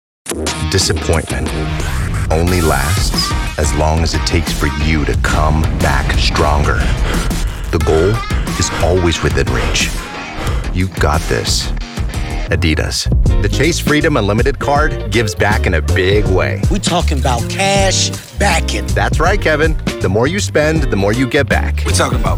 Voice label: Commercial